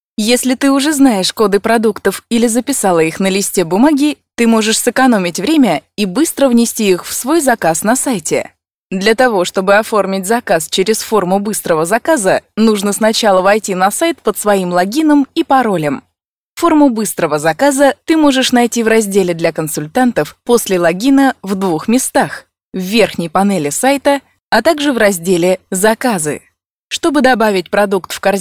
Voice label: presentation; voices female; rus; oriflame